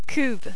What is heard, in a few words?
"cuve", French